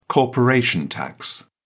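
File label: "corporation tax", British English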